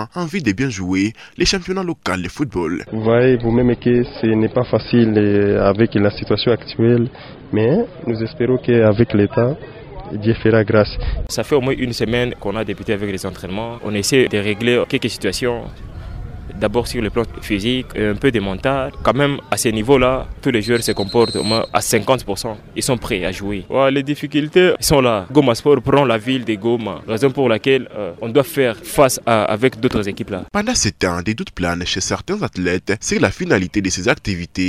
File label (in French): REPORTAGE LANCEMENT CHAMPIONAT LOCAL FR; goma reprise du championnat local de football au stade de lunite